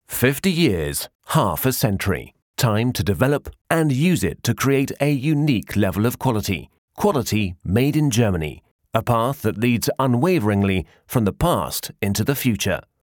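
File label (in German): British Imagefilm, englisch; sprecher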